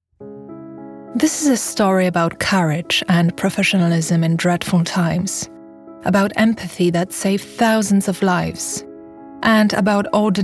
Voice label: female Polish English with European accent narration; righteous diplomacy